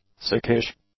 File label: "sickish", English; adjectives